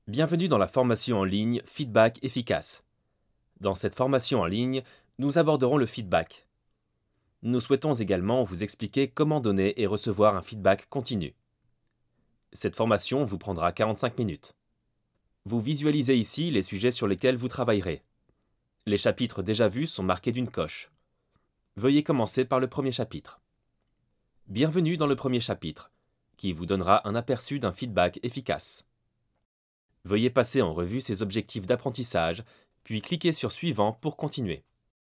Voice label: male French e-learning